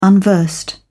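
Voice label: "unversed", English, British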